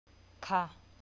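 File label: "ค่ะ", Thai, falling tone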